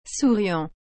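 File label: "souriant", French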